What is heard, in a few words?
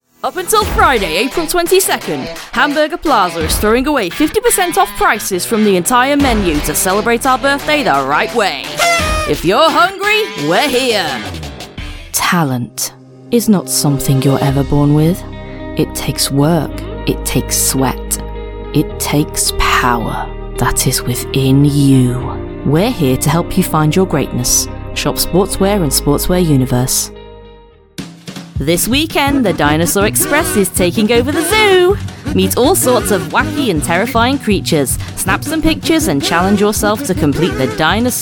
Commercial